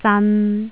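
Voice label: "zan3", Cantonese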